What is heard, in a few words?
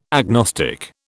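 "agnostic", British English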